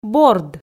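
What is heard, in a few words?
"борд", Komi, female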